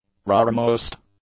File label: "rearmost", English; adjectives